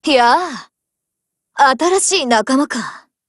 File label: BUILD OVER JP; GF Alarm; M1928A1